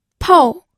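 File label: "pou", Mandarin Chinese, fourth tone; pinyin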